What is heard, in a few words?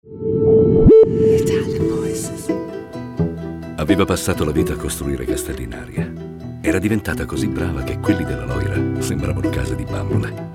Italian voices; Naked Reel